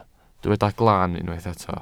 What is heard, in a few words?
"glan", Welsh